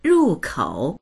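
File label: "ru4kou3", Chinese; rùkǒu; 入口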